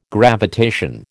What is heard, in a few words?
"gravitation", American